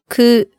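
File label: "ku", Japanese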